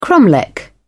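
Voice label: "cromlech", British English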